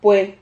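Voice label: "pue", Spanish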